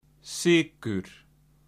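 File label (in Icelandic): "sykur", Icelandic; matarhefti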